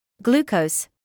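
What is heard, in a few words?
"glucose", British English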